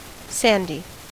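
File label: "sandy", American English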